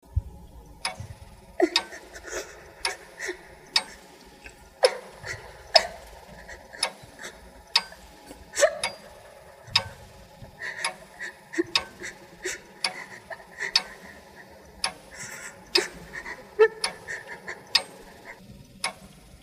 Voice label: Radio Ad